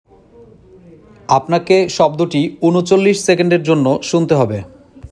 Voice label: male sound given